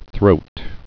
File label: "throat", English